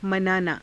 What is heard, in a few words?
"manaanaa", Hindi; [他動]祝う; मनाना